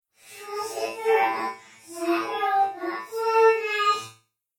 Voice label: Robot Voice SciFi Female, Robot Voice Small Female Flanged Short Phrase; Robots; BBFX